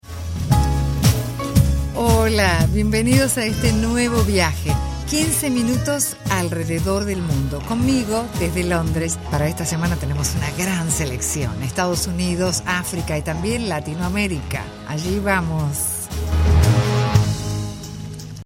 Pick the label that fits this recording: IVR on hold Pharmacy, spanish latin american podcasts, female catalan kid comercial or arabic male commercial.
spanish latin american podcasts